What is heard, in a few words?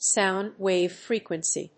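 "sound wave frequency", English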